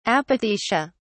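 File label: "apothecia", British English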